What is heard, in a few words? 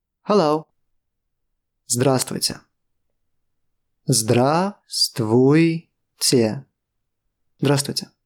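Russian Hello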